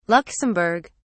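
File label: "luxemburg", British English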